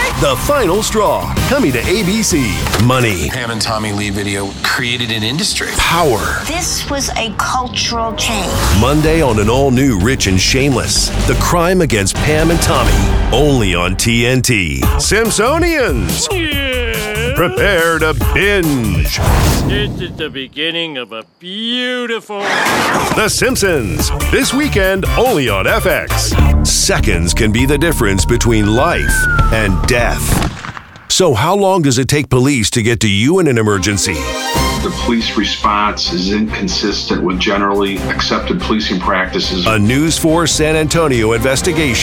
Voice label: Imaging, Radio Imaging Voice Over; radio tv imaging